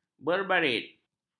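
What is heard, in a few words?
"bergarit", Malay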